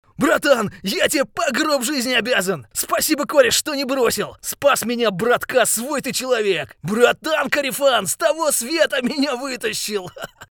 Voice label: russian video games